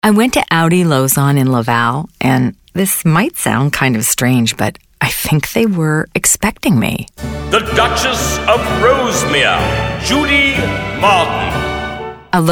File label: natural conversational; Audi